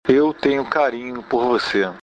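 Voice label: I have affection for you, Brazilian Portuguese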